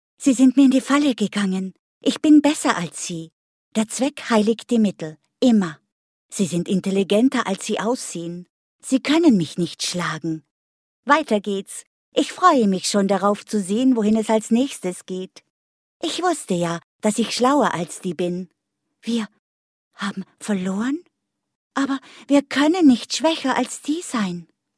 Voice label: female german voice over character